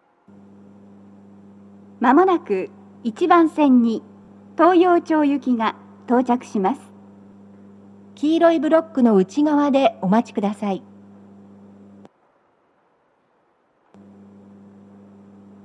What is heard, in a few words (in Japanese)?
接近放送, BOSE天井型での収録です。; 放送は「各駅停車 東陽町行」です。快速 の通過待ちはありません。